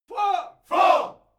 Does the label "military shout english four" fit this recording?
Yes